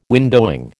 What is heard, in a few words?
"windowing", American